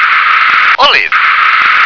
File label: "olive", English